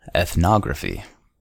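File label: "ethnography", US English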